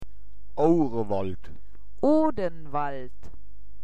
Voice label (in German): "ourewoald", Mundart; woerterbuch